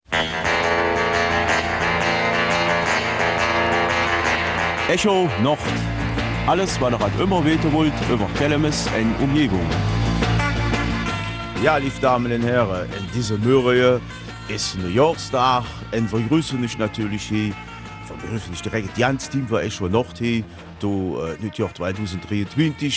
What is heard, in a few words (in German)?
Kelmiser Mundart; Rückblick 2022, Ausblick 2023